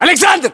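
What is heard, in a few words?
"aleksandr", battlechatter, Russian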